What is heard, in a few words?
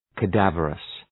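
"cadaverous", English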